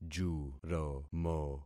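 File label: "juromo", concatenated syllables; Affixing experiment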